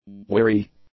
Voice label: "weary", English; adjectives

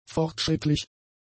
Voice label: "fortschrittlich", German; adjectives

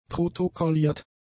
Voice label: "protokolliert", German; adjectives

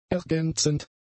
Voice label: "ergänzend", German; adjectives

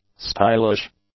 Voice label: "stylish", English; adjectives

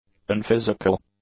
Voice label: "unphysical", english; adjectives

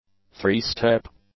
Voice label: "three-step", English; adjectives

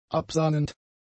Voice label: "absahnend", German; adjectives

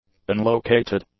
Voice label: "unlocated", English; adjectives

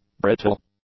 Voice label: "brittle", English; adjectives

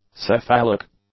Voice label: "cephalic", English; adjectives

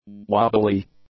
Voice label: "wobbly", english; adjectives

